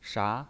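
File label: "sha", Mandarin Chinese pinyin, second tone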